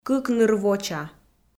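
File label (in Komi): "кык ныр воча", Komi, female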